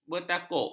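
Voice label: "bertakuk", Malay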